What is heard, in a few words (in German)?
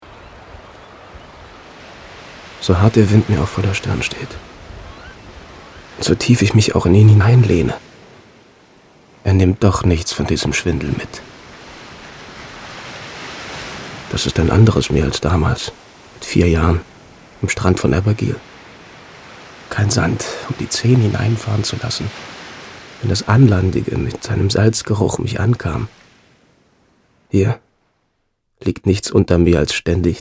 Schauspiel Sanft